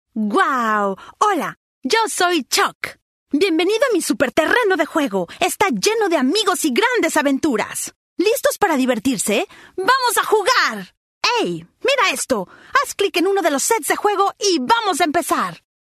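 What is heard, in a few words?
Spanish Mexican F Characters, female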